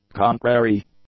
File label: "contrary", English; adjectives